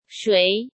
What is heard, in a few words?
"谁", Chinese; Chinese Grammar Wiki